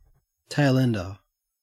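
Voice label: "tailender", Australian English; pronunciation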